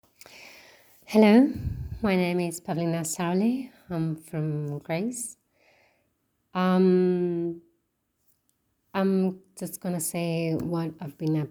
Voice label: British English

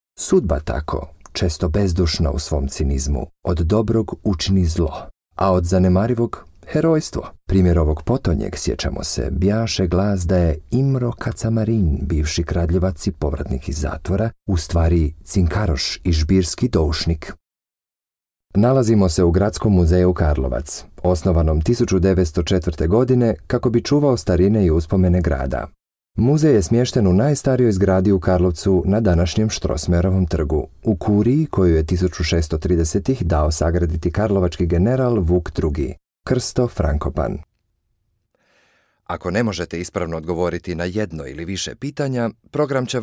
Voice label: Voicereel Croatian

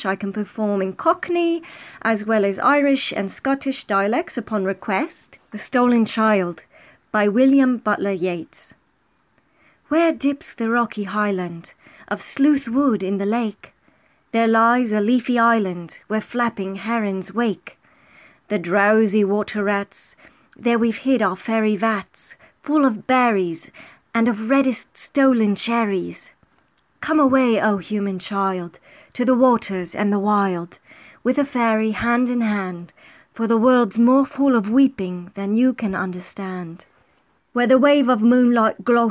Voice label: British Accent

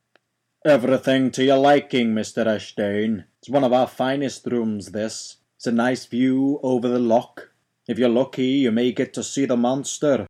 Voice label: Voice UK

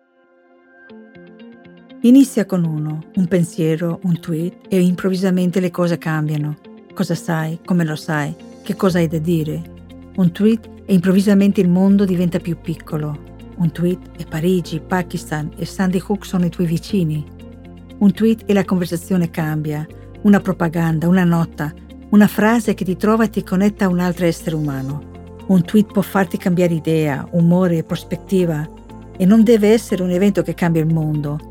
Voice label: italian; TWITTER

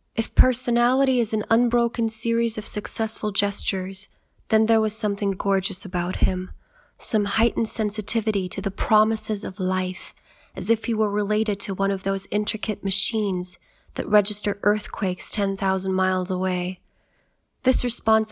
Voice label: English American

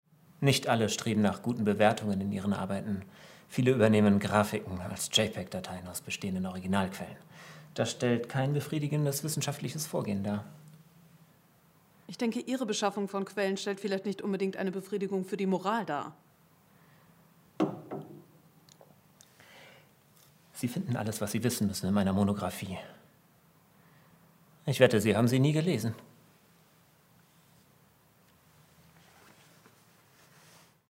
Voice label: Native German

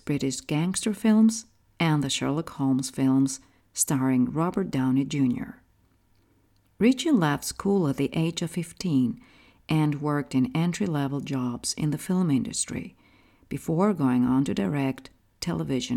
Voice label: English American

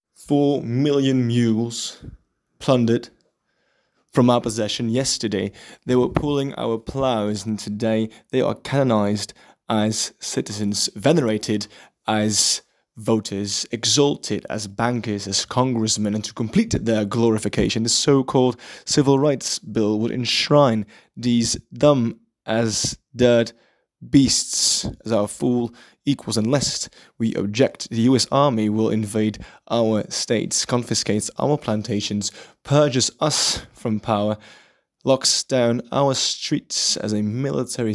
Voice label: British English

